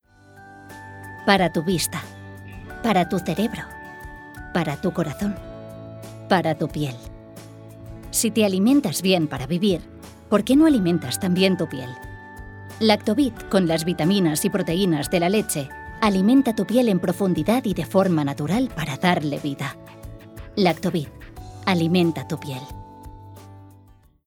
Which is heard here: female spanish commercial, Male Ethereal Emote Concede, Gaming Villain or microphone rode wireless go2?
female spanish commercial